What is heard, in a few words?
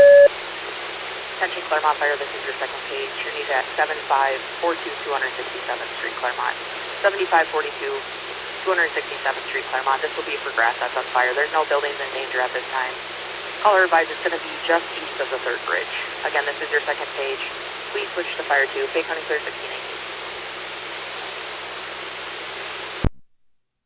Fire, dispatch; Clermont